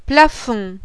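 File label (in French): "PLAFOND", French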